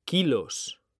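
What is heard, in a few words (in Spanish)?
"kilos", Spanish, male; mekanta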